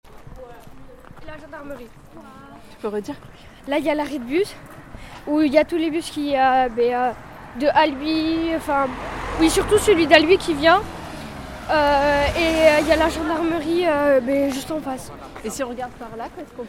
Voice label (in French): une élève décrit cordes; college renee taillefer; ambiances diverses